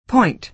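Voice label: "point", American English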